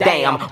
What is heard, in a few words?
"damn", expletives